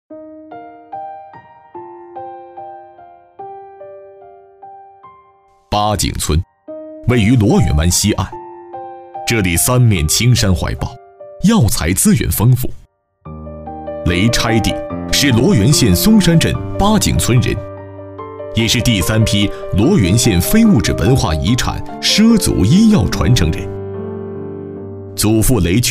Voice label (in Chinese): 男 专题 人物宣传片; 畲族医药传承人